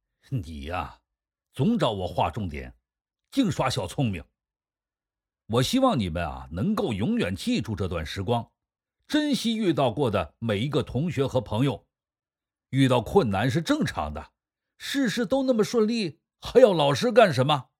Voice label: 对话 角色 老师

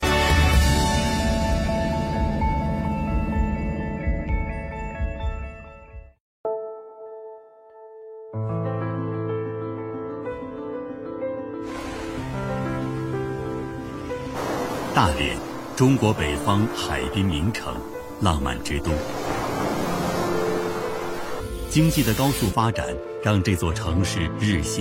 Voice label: 男 纪录片 奋进的中国; CCTV

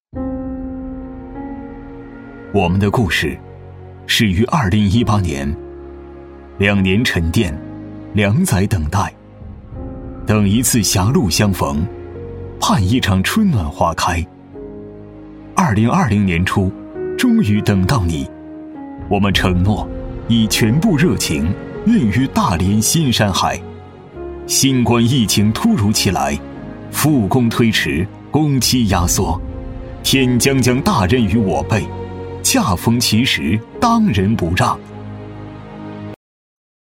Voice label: 男 走心旁白; 山海集团宣传

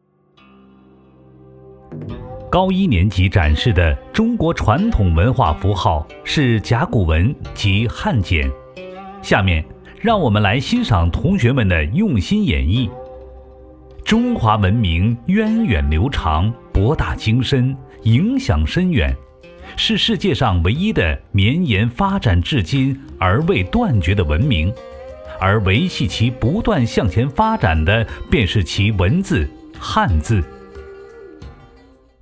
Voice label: 男 学习专题 中华文化教育

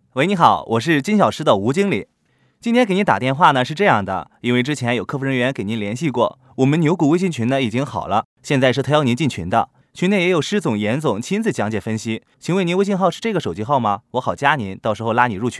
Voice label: 男 AI电销; 牛股微信群

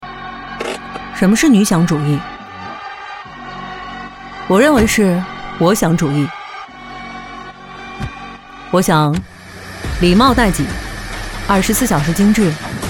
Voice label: 女 个性; 就要这样妆

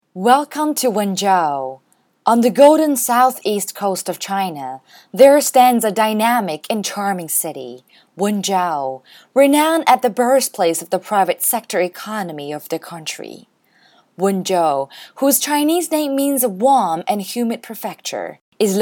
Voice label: 英女; wenzhou

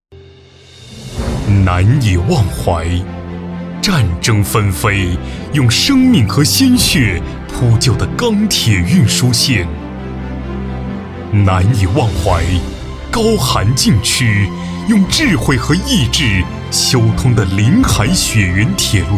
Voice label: 男 企业宣传片; 中铁建大桥局

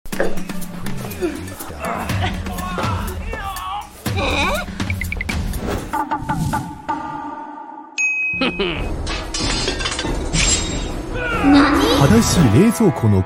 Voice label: 男 宣传片 项目视频 日语版; 东芝宣传片

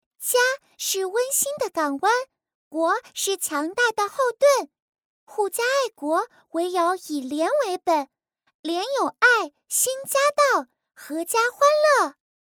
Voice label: 女 动画童声配音; 国庆中秋廉洁

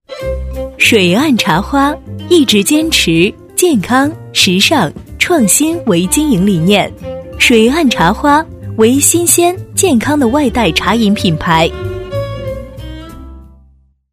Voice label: 女 品牌介绍; 水岸茶花

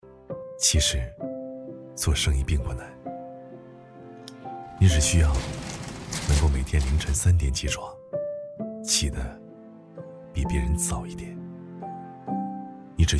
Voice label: 男 走心独白; 度小满金融

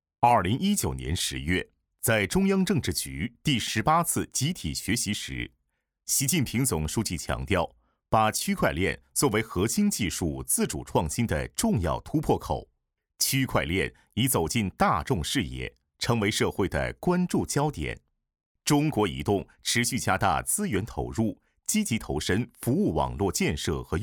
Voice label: 男 专题 区块链

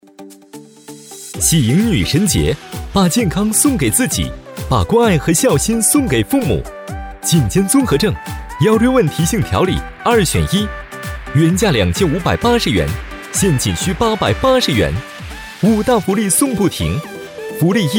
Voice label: 男 广告 喜迎女神节 活动

